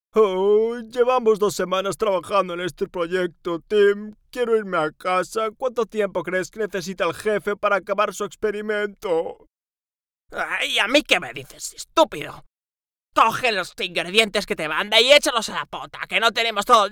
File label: male Galician and Spanish Castilian cartoon dialogue